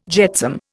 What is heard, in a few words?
"jetsam", Indian